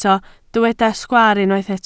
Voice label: "sgwaar", Welsh

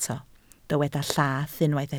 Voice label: "llath", Welsh

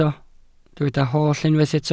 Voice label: "holl", Welsh

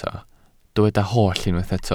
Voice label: "holl", Welsh

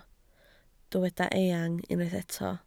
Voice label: "eang", Welsh

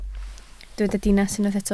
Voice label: "dinas", Welsh

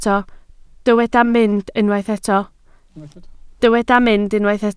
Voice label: "mynd", Welsh

